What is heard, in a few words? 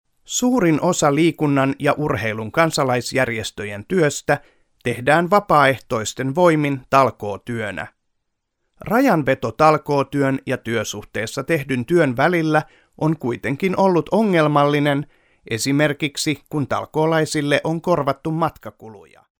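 Finnish, male, narration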